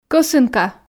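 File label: "кӧсынка", Komi, female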